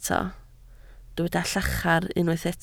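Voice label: "llachar" Welsh, spoken word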